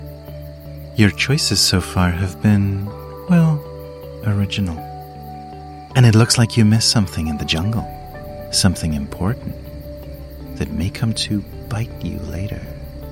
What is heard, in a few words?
UK English Video Game Animation Characters